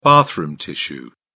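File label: "bathroom tissue", British English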